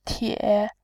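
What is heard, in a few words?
"tie", Mandarin Chinese, third tone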